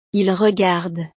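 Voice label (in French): "ils regardent", French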